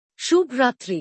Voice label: Good night. - Bangla; Basic Greetings and Courtesy